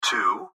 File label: Gps Voice, Male